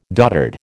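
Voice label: "doddered", American English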